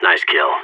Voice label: nice shot, vo agents seal; Source Model Packs; Counter Strike Model Pack; CS2